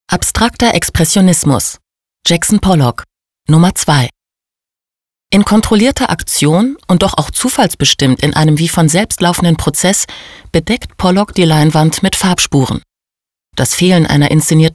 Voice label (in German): Audioguide